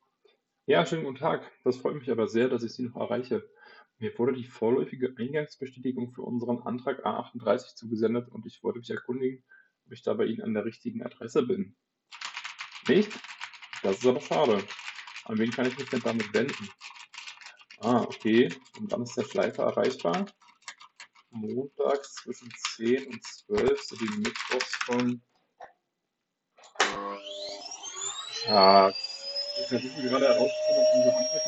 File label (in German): Testaufnahme Earbuds Bluetooth; Lenovo SE 631TWC